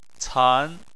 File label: "can2", chinese